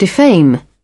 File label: "defame", British